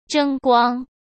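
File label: "争光", Mandarin Chinese; chinese flashcards; hsk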